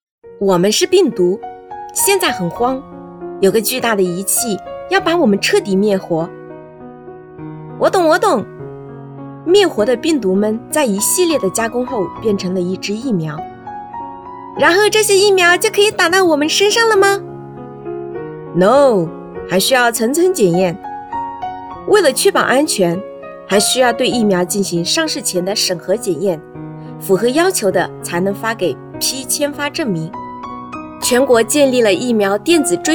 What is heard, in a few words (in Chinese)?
对话配音; 病毒